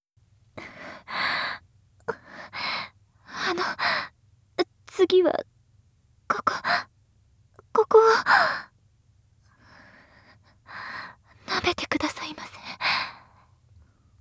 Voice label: シチュ繋ぎパターン セリフ, ASMR; 清楚ビッチエルフ姫のメガ盛りマントロフルコース

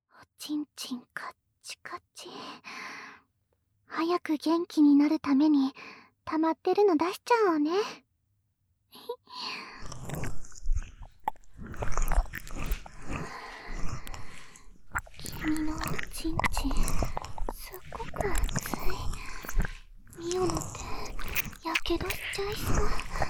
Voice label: 耳舐めされながらどすけべ彼女に手コキ・フェラ・パイズリされる音声; どすけべ彼女がいつもおま◯こ濡らしてて看てくれない。もう我慢できない汗だくせっくす看病

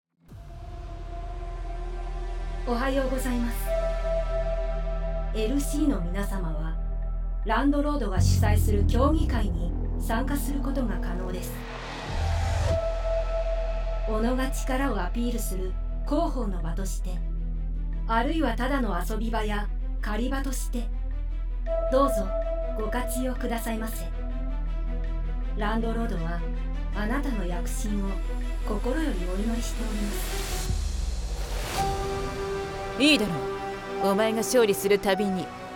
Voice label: プロモーションVOICE; ヒールなオペ子さん

